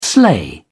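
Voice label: "sleigh", British English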